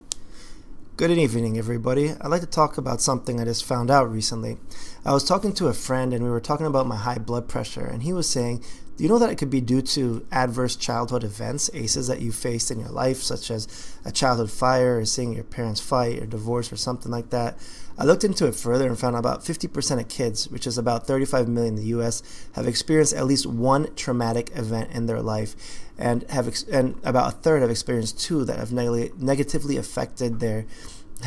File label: Radio Ad